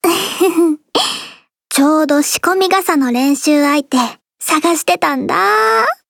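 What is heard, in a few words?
start, Japanese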